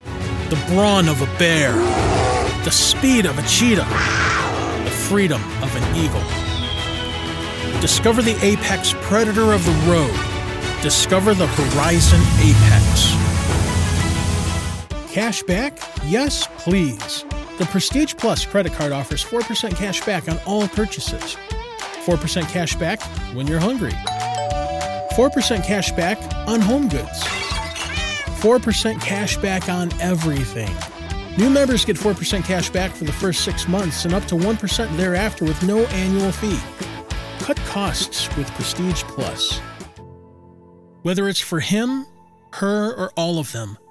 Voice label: Commercial Demos